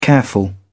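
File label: "careful", British English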